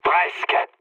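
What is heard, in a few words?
bomb failure, mood low, French; War Thunder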